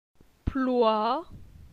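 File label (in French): "Ploi", French; phonetique; la syllabe oi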